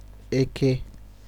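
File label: "eke", Nigerian Pidgin, male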